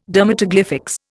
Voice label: "dermatoglyphics", Indian